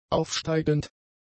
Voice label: "aufsteigend", German; adjectives

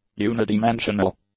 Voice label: "unidimensional", english; adjectives

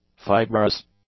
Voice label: "fibrous", english; adjectives

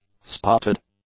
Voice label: "spotted", english; adjectives

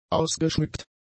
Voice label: "ausgeschmückt", German; adjectives

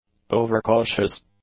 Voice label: "overcautious", English; adjectives

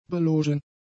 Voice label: "belogen", German; adjectives

